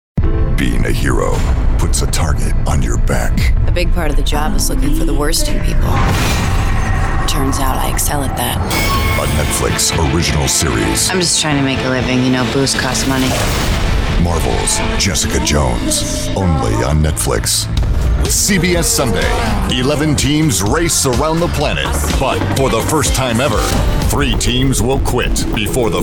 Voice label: Promo